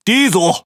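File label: Speech Pilots Bomber ID, Chinese; World Of Warships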